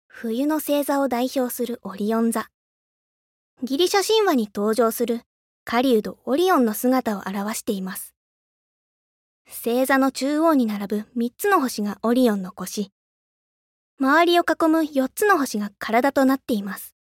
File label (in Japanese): Narration Puranetariumu